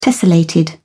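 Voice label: "tessellated", English, British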